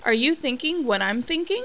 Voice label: thinking